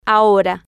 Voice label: "ahora", Spanish

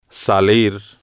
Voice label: "salir de", Spanish

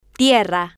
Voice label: "tierra", spanish

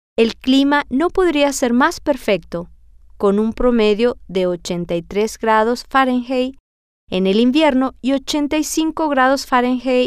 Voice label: spanish; el Clima

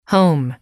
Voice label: "home", english

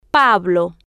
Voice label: "pablo", spanish

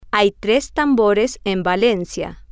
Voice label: hay tres tambores en valencia, Spanish

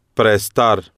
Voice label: "prestar", Spanish

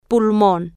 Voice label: "pulmon", spanish

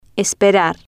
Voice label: "esperar", Spanish

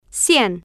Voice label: "cien", Spanish

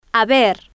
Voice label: "haber", Spanish

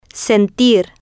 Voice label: "sentir", Spanish

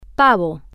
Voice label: "pavo", spanish

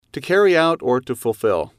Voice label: to carry out fulfill, English

